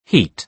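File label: "heat", British English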